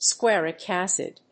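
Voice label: "squaric acid", English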